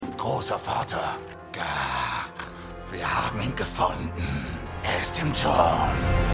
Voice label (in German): Orc, Stimmproben; DER HERR DER RINGE: DIE RINGE DER MACHT; The Lord of the Rings